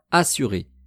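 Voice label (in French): "assure", French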